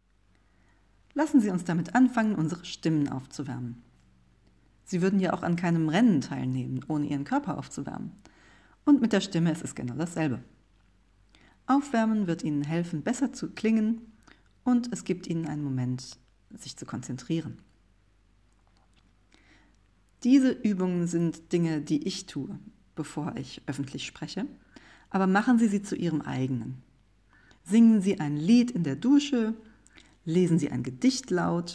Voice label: Voice Training German